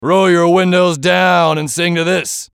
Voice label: VOICE COMICAL; Funny Sound; Radio Voice; Blastwave